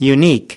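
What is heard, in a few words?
"unique", English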